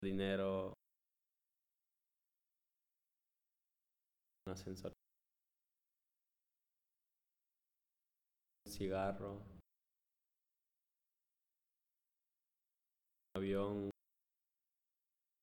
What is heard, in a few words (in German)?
Zusammengefügte Wörter Langsam Spanisch